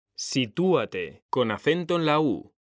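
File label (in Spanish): "sitúate", male; mekanta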